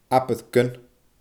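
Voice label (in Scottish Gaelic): "abair gun", Scottish Gaelic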